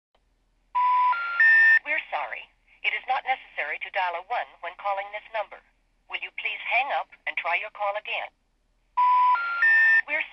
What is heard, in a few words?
do not dial 1, Verizon POTS